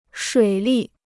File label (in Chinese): "水利", Chinese